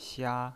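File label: "xia", pinyin voice, first tone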